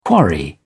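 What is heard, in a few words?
"quarry", English, British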